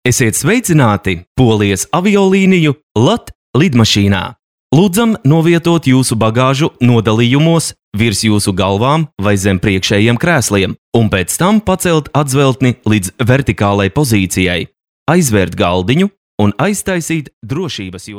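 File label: Latvian male commercial; voice demos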